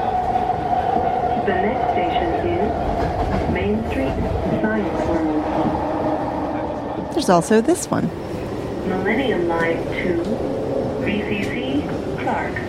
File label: skytrain voice mono